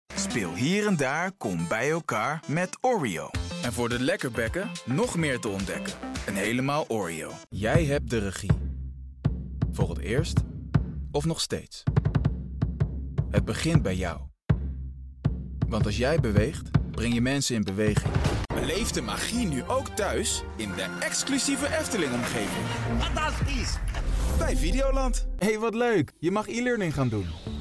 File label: Dutch Compilation